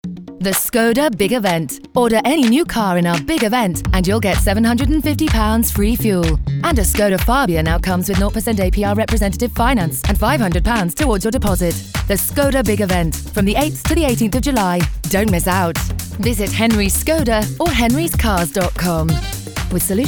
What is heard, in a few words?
Radio Ad; Skoda Big Event July